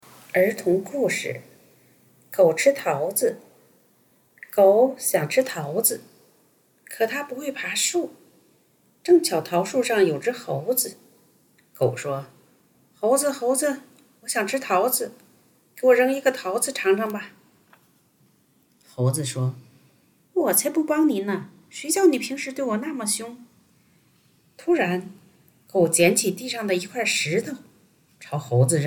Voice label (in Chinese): 儿童故事; 狗吃桃子